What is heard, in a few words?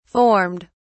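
"formed", English, British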